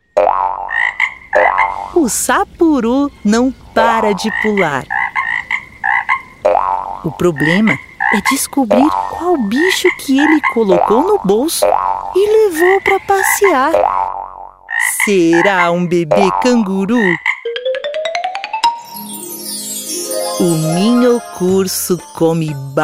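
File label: Brazilian Portuguese, Audiobook; voice demos; GoLocalise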